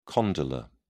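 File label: "condylar", British English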